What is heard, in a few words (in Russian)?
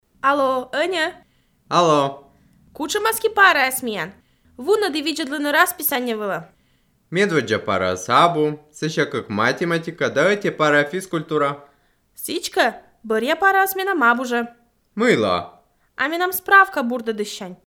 dialog